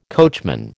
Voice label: "coachman", American